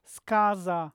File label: voiced alveolar medial, polish